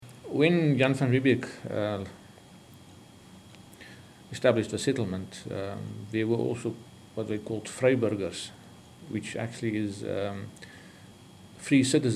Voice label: South Africa natural conversation